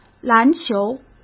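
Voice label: "篮球", Mandarin Chinese; chinese-flashcards; hsk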